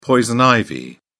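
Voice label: "poison ivy", British English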